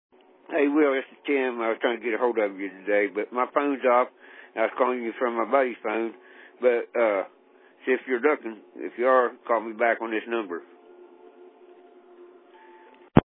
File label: Voicemail